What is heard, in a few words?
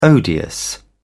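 "odious", English, British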